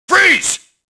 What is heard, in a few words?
police alert; Max Payne